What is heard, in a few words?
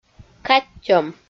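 "каттьӧм", Komi, female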